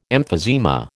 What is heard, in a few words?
"emphysema", American